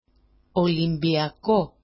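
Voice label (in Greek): "olimbiako", Greek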